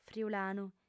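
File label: "friulanu", female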